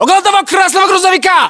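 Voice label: landmark near truck red, Russian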